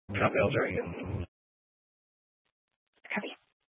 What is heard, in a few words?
Sheriff Dispatch, scanner